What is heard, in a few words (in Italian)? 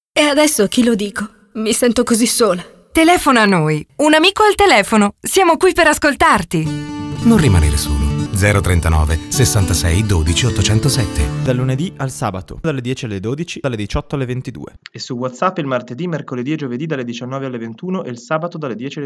spot vocale whatsapp